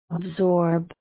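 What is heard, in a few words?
"absorb", USA, female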